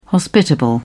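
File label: "hospitable", British English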